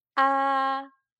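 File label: "a", Mandarin pinyin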